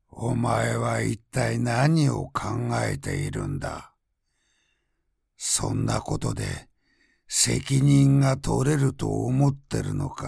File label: Acting